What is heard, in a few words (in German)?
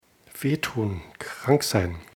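"krank", German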